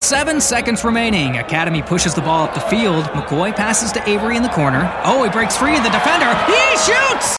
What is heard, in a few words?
Sports Announcer